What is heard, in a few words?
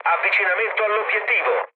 near waypoint mood low, speech it; War Thunder